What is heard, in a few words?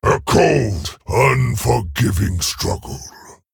Male Centaur